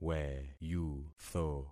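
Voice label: "weyutho", concatenated syllables; Affixing experiment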